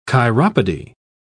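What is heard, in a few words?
"chiropody", English, British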